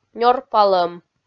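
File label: "нёрпалӧм", Komi, female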